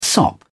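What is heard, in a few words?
"sop", British English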